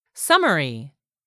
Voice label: "summary", english; 3000 words